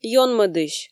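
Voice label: "ёнмӧдысь", Komi, female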